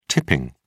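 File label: "tipping", British English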